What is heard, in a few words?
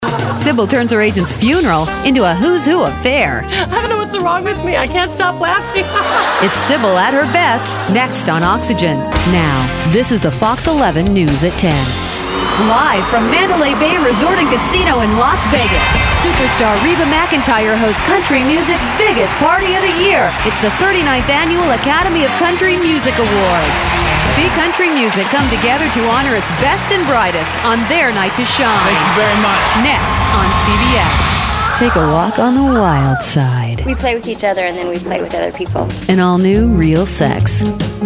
Promos